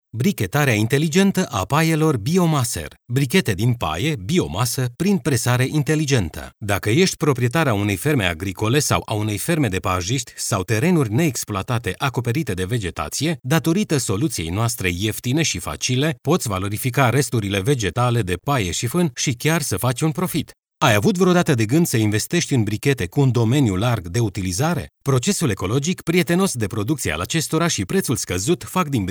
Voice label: Romanian